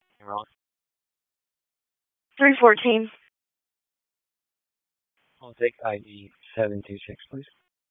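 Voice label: Police Northeast District Disp, radio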